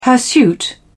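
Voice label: "hirsute", British English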